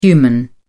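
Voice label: "human", English, uk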